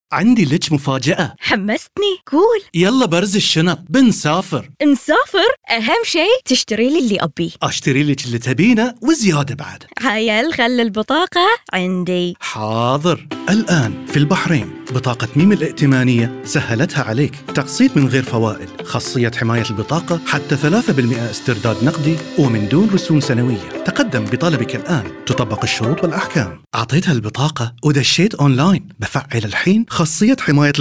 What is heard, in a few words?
male arabic credit card commercial; meem